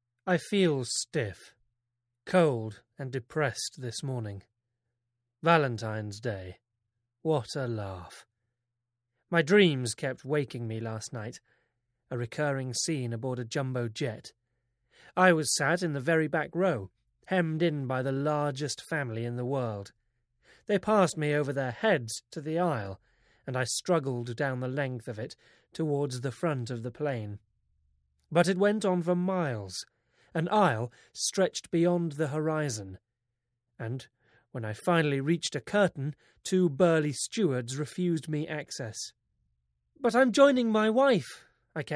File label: english british audiobooks